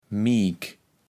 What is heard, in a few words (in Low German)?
"miek", kaevels platt